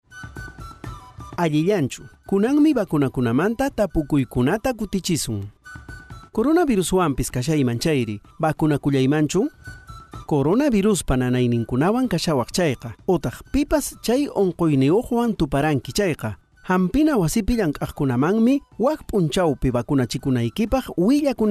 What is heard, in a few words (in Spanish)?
quechua cusco collao microprograma preguntas y respuestas, spot de radio; vacuna contra el coronavirus